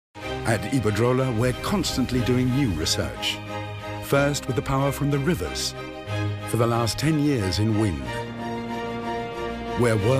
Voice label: advertising, ENGLISH UK MALE

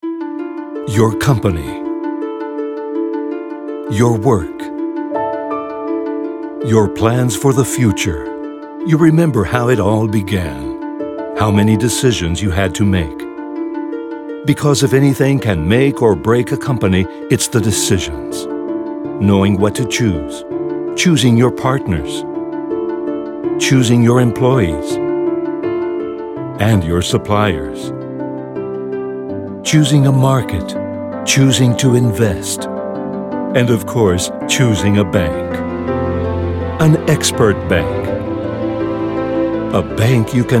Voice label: CORPORATE, ENGLISH UK MALE

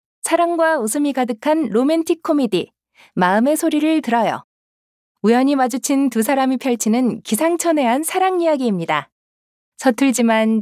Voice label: Korean, female

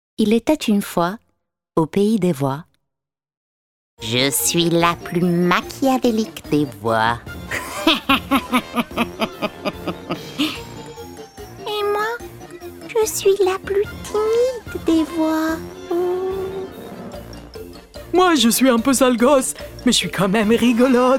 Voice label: characters, French, female

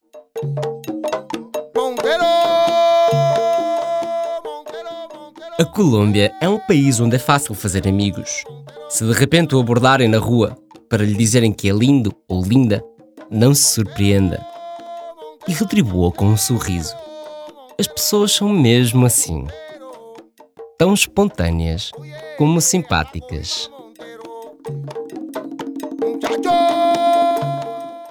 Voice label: informative, portuguese, male; advertising

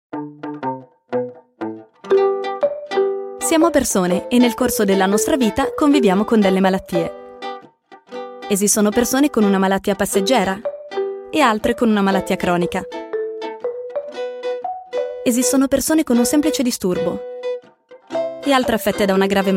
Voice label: corporate, Italian, female

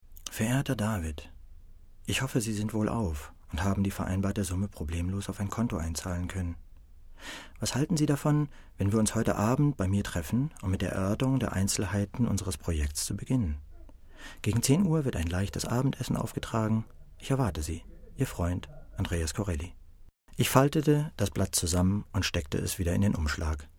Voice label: audiobook, German, male

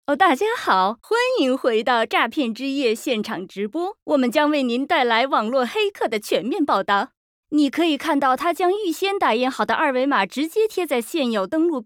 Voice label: CHINESE, FEMALE

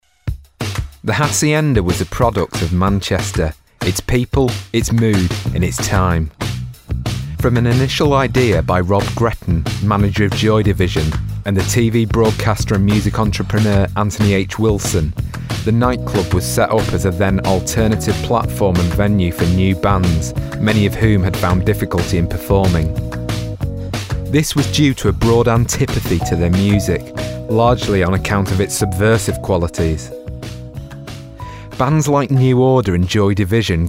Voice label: informative, English UK, male

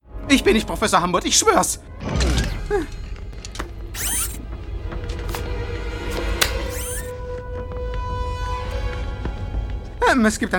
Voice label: Animation, GERMAN MALE

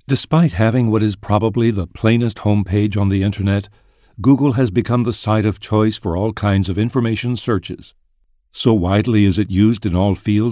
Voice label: e learning, English UK, male

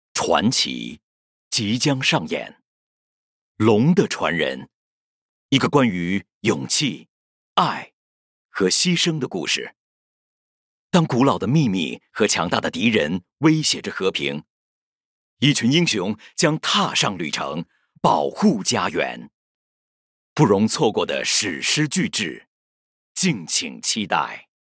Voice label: Chinese, male

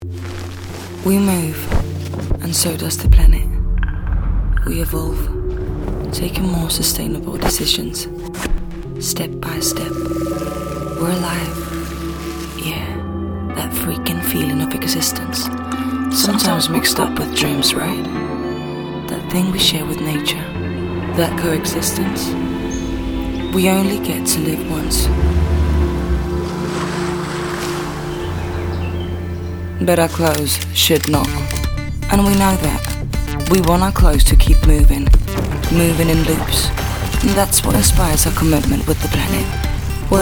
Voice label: commercial, ENGLISH US FEMALE

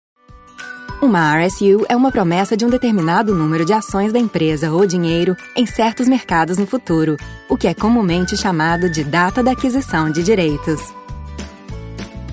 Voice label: character, Portuguese, female

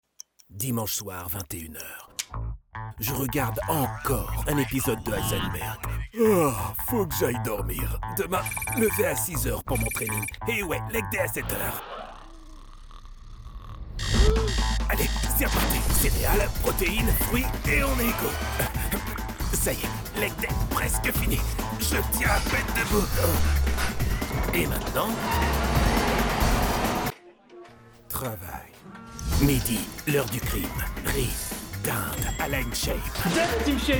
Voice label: commercial, French, male

